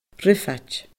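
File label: "rifeid", Scottish Gaelic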